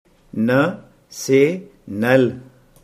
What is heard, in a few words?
"nal", Hindi